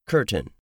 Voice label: "curtain", English; 3000 words